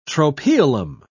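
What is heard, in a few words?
"tropaeolum", British English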